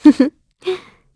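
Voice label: Vox Happy, Korean; Hilda; kingsraid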